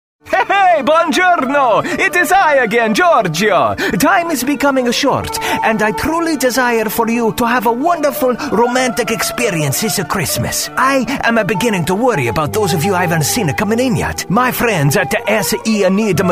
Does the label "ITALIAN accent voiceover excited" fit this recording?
Yes